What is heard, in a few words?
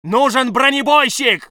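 voice soviet infantry; Red Orchestra 41-45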